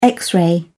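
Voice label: "x-ray", English, British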